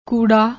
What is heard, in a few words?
"kooda", Punjabi; ਕੂੜਾ